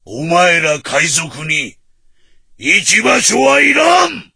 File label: voice, dubbing